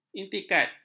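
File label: "intikad", Malay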